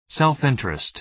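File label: "self-interest", American English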